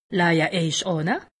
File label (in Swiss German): "leje", alsace; medecine